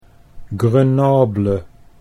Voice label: "Grenoble", Dutch